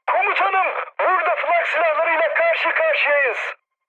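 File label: damaged aa, mood high, Turkish; War Thunder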